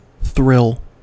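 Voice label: "thrill", American English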